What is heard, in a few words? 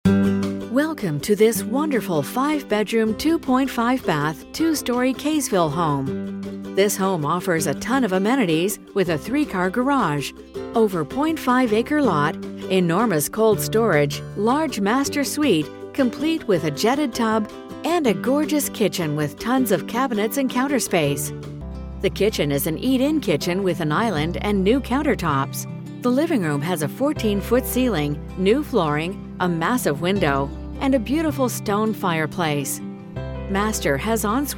English Canadian real estate